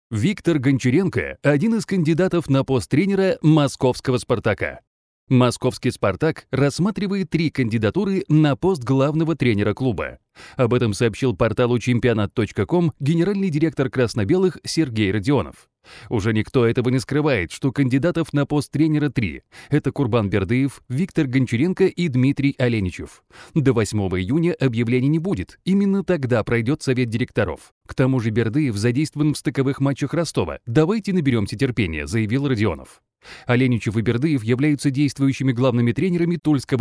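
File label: russian male narration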